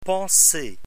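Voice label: "penser", French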